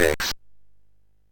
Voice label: MOS6581R3, Electronic Speech Systems